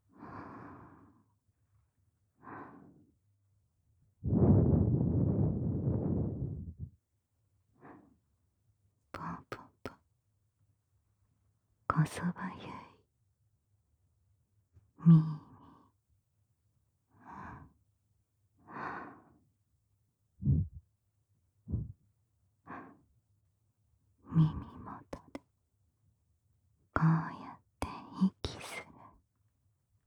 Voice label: 耳吹き・バックハグボイス

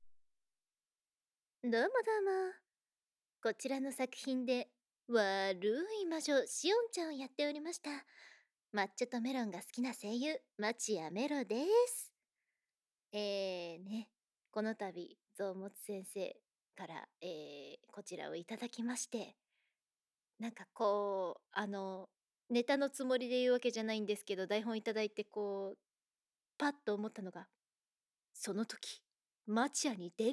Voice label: フリートーク

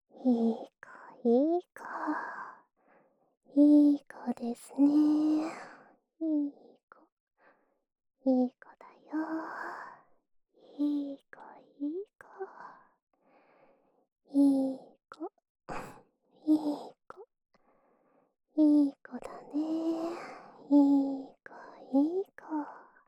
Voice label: いいこいいこ左

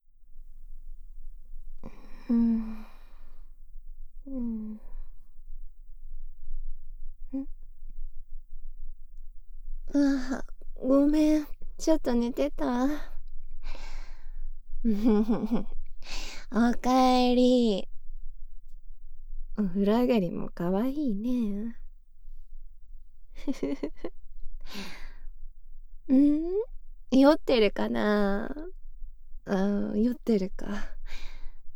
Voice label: ほろ酔い添い寝; 隣の部屋のお姉さんに甘やかされる

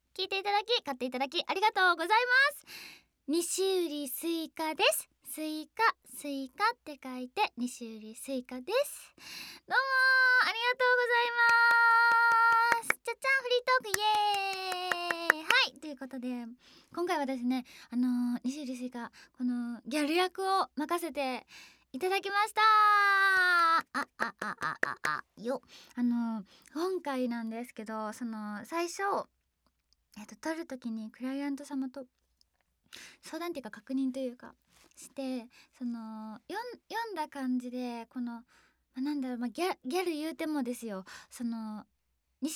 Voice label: フリートーク

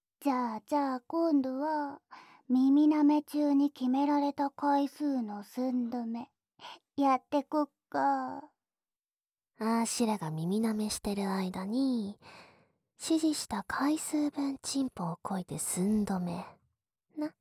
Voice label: ノルマ式寸止めとベロ見せつけと咀嚼音耳舐めで危機一髪, ASMR

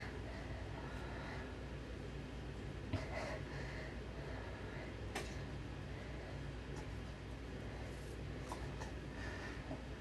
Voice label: ピロートーク, ASMR

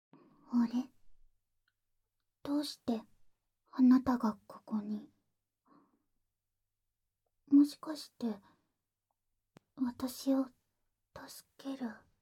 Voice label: 耳舐め囁きオナサポ（SE無し）, ASMR; 夢でまた会えた。

